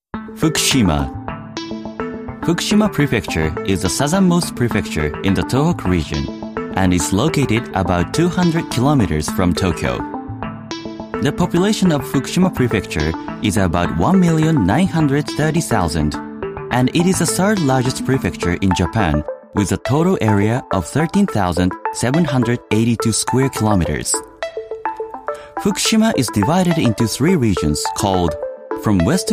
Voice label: english documentaries